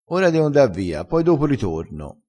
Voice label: "po", crespinese

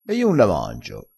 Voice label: "levassi la sete", crespinese

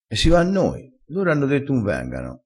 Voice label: "loro", crespinese

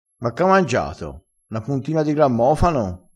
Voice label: "ma ch ha mangiato", crespinese

